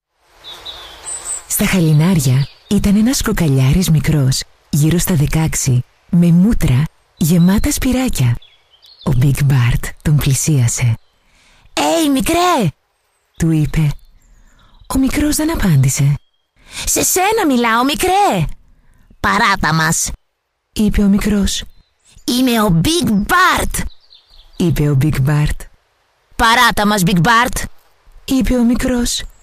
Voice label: female Greek animation narration; english and greek; voices